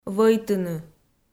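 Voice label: "вӧйтыны", Komi, female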